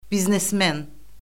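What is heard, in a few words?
"biznesmen", Azerbaijani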